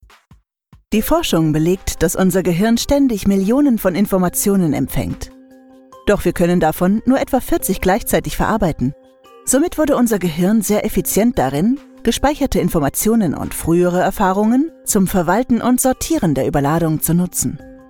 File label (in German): Erklärfilm